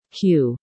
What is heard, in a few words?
"Q", female